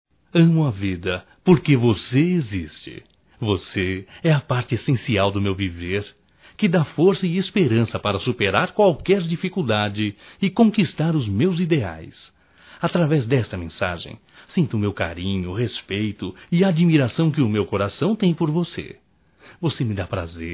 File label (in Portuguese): DECLARACAO, masc; DIA DOS NAMORADOS; Telemensagens 2017